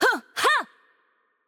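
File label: voice over, Japanese; Change Player; bloom minigame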